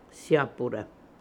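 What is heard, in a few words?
"Siapoora", pronunciations; Fourth Way; Beelzebub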